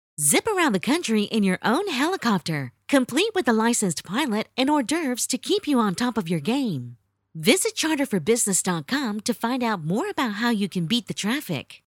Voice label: foreigner